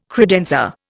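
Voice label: "credenza", Indian English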